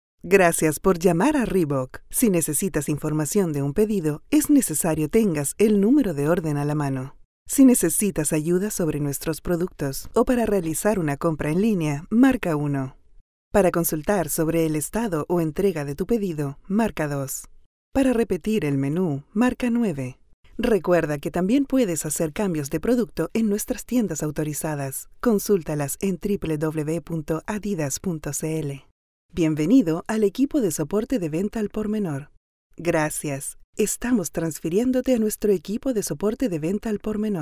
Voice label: spanish latin american ivr